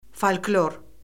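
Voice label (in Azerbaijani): "folklor", Azerbaijani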